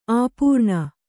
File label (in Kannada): "ಅಪರ್ಣ", Kannada